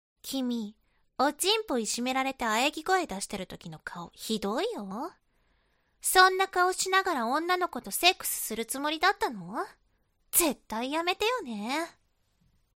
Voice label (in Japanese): 懲罰中音声, ASMR